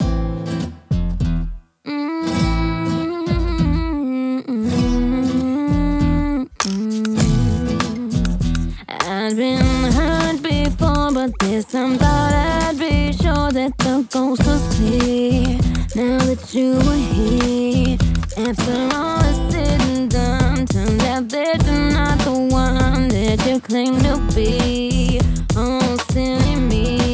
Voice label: Voice Tone C1 Hard Tune